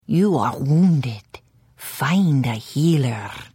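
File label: Hello, Khajiit female; The Elder Scrolls III - Morrowind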